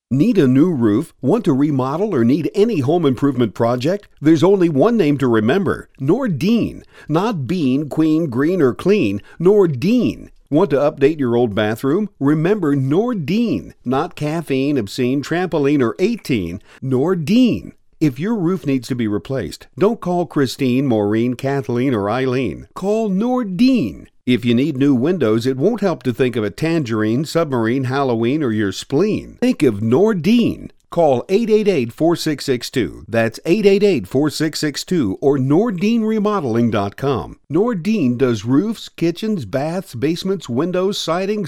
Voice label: Radio Ad Original Rhyming